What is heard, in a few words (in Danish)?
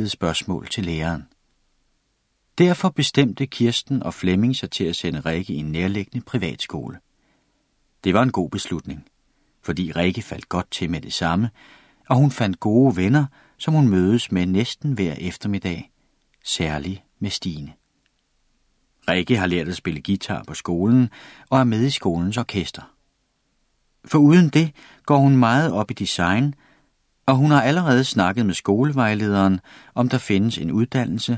Rikkes skolegang, Dänisch; daenisch